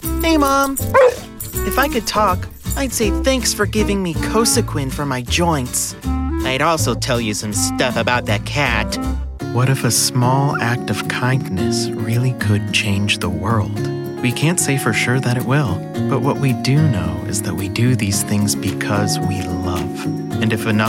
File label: Commercial